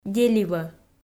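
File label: "деливӧ", Komi, female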